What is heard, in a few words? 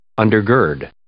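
"undergird", English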